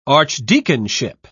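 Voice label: "archdeaconship", English, British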